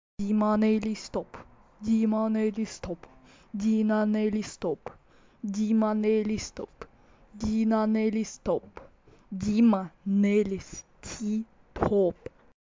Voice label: voice changer